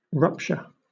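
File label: "rupture", British English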